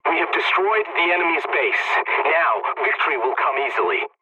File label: pilot base destroyed enemy, english; War Thunder